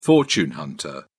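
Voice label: "fortune hunter", English, British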